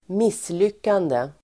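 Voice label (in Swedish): "misslyckande", Swedish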